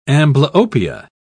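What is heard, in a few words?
"amblyopia", English, British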